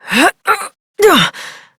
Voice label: Horse Mount Adult, Dialog; Duviri